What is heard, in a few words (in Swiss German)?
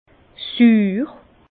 "suur", Bas Rhin; vin; aigre